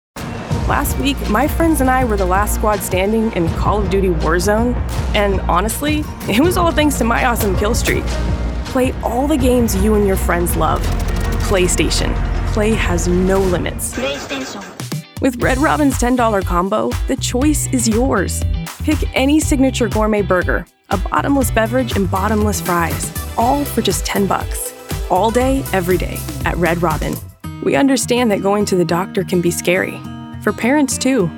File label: Commercial Demo, professional voice actor